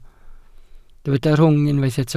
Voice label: "rhwng", Welsh

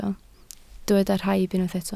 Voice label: "rhaib", Welsh

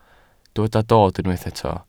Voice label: "dod", Welsh

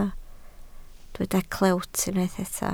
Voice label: "clewt", Welsh

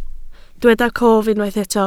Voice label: "cof", Welsh

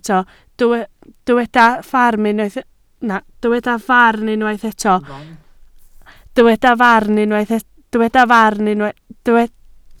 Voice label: "farn" Welsh

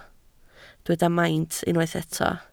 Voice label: "maint", Welsh

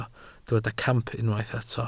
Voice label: "camp", Welsh

